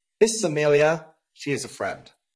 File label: Voice Acting, es